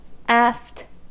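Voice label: "aft", American English; pronunciations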